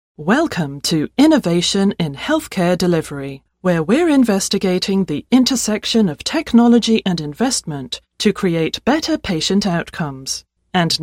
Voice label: english british podcasts